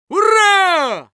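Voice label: positive vocalization, Russian; Ура!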